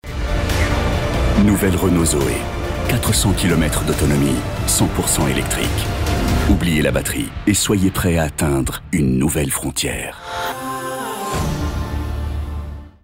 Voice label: SPOT TV; Renault-Zoe